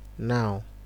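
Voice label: "now", Nigerian Pidgin, male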